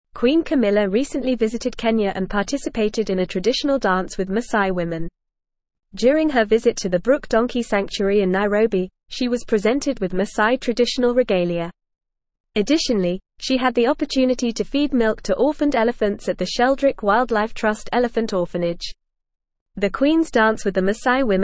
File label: English Newsroom Advanced FAST Reading; Queen Camillas Kenya Visit Cultural Engagement and Wildlife